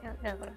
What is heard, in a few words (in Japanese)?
や、やだぁ（小声）; ドンキーコング６４